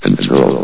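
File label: "until", announcer voice; Source Engine; bshift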